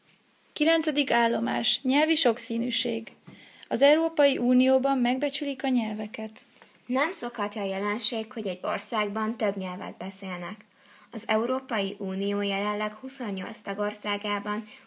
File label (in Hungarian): magyar; Station 9 sprachenvielfalt